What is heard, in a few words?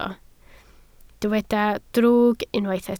"drwg", Welsh